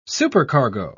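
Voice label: "supercargo", English, uk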